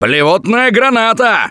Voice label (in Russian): boomer jar, left4dead2 russian, Survivor Voice